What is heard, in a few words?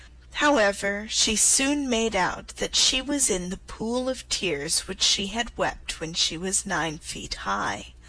alice in wonderland slow